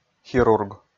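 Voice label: "хирург", Komi, male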